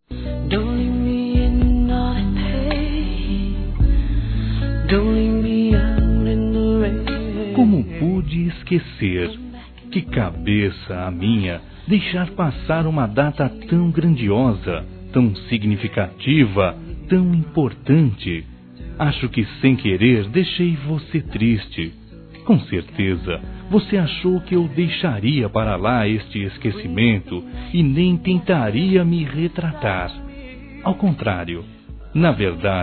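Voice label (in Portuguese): desculpas aniversário, masculino; Telemensagens 2017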